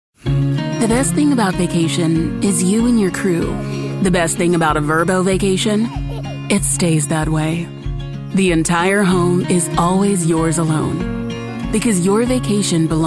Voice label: Commercial, Female Voice Over, Bubbly, Joyful, Honest, Confident